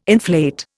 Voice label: "inflate", Indian

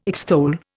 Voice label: "extol", Indian

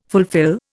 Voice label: "fulfil", Indian

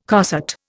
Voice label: "coset", Indian

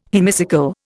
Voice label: "hemicycle", Indian

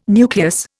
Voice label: "nucleus", Indian

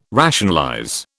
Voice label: "rationalize", British

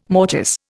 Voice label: "mortice", Indian

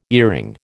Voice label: "earring", American

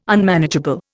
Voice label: "Unmanageable", Indian

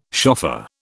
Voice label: "shofar", British

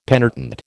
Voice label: "penitent", American

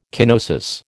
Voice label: "kenosis", American

